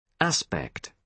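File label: "aspect", British English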